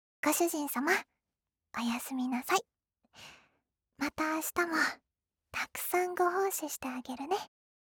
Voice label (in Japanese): シチュエーションボイス